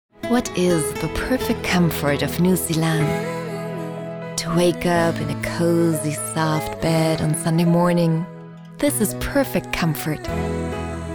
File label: english